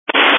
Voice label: Family Radio Service (FRS)